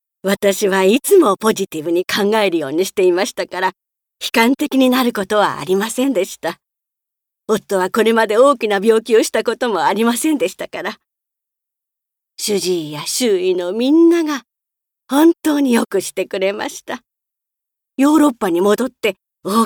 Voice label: ドキュメンタリー

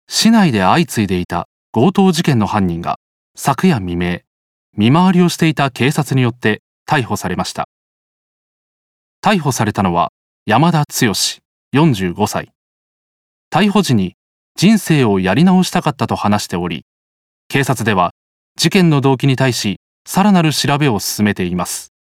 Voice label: ニュース

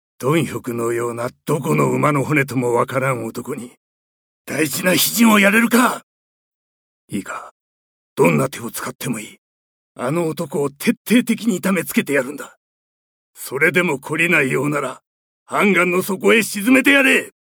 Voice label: 怒り

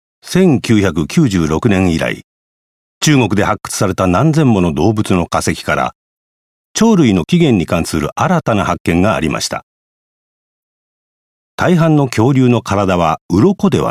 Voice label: 歴史番組ナレ

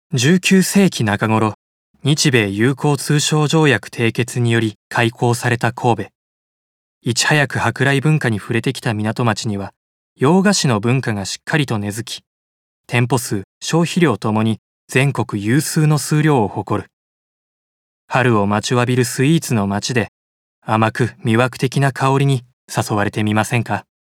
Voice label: 解説風CM

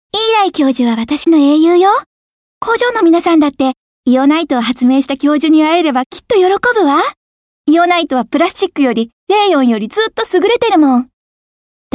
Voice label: 大学生

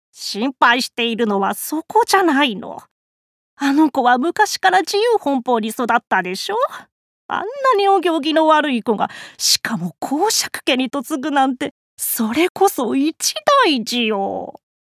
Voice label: 上流階級老人

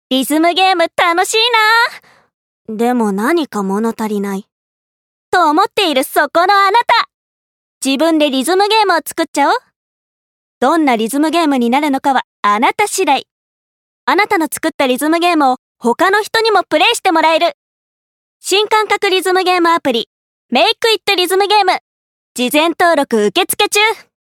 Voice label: ゲームCM

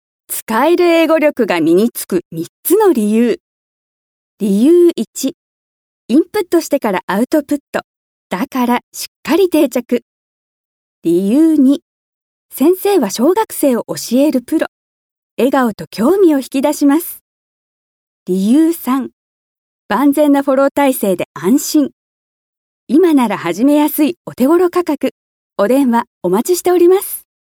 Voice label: スクールCM

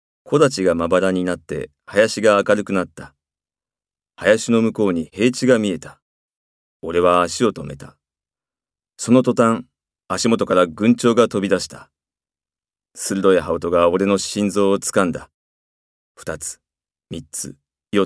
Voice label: 朗読

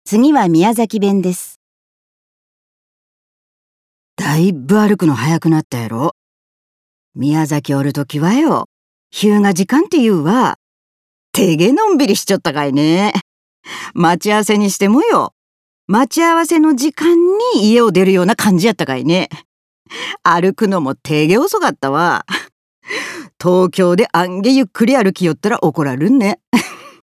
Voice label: 宮崎弁

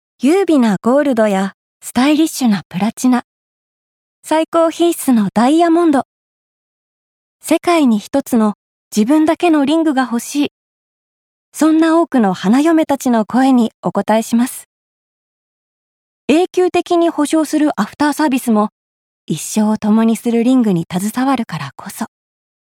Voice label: ジュエリーCM

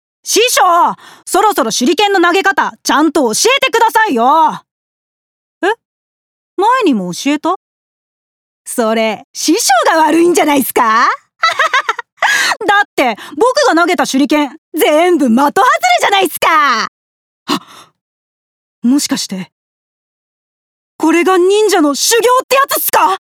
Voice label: 少年お調子者

